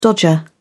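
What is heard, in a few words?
"dodger", British English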